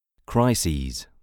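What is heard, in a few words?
"crises", English; mots en is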